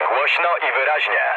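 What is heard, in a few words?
Polish chat; speech; War Thunder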